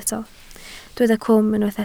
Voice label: "cwm", Welsh